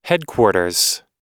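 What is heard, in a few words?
"headquarters", English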